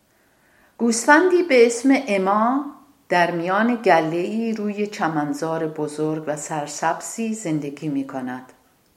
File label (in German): farsi